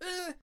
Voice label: Ice Archer Attack Vocals